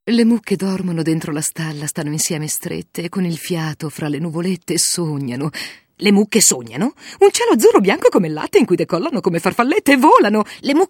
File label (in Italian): Caratterizzazione